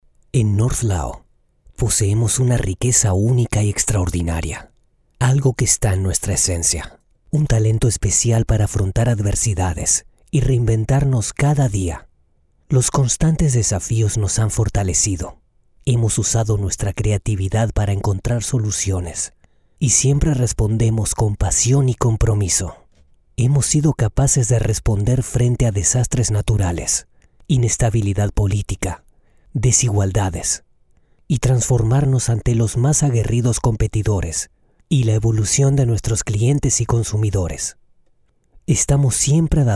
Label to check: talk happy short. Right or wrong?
Wrong